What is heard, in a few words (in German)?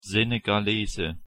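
"senegalese", German; pronunciation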